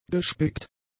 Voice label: "gespickt", German; adjectives

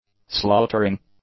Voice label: "slaughtering", english; nouns

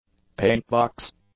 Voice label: "paintbox", English; nouns

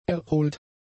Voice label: "erholt", German; adjectives

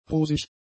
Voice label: "rosig", German; adjectives

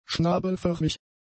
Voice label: "schnabelförmig", German; adjectives

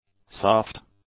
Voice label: "soft", english; adjectives

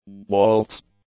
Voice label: "waltz", English; nouns

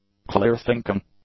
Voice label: "clear-thinking", English; adjectives

